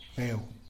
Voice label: "beu" turtle; animals